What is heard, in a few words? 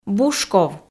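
"бушков", Komi, female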